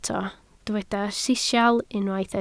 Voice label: "sisial", Welsh

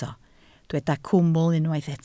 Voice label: "cwmwl", Welsh

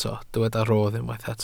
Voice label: "rodd", Welsh

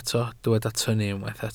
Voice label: "tynnu", Welsh

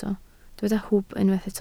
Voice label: "hwb", Welsh

